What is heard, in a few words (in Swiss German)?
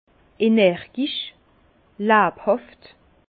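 "labhaft", Alsace Bas Rhin; vin; vif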